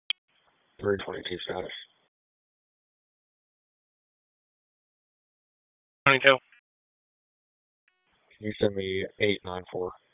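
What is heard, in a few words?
Police Northeast District Disp, radio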